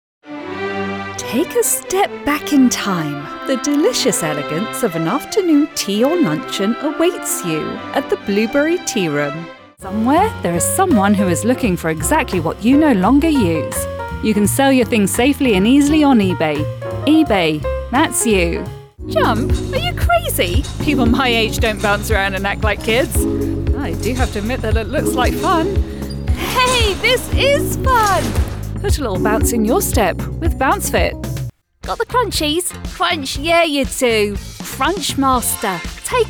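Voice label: commercial, British voice actor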